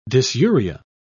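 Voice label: "dysuria", British English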